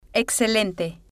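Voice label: "excelente", Spanish, female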